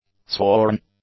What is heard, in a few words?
"sworn", English; adjectives